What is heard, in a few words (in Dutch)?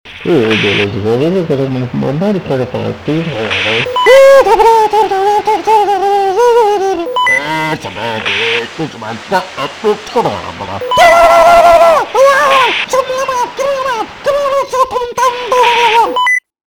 Portofoon Koreaans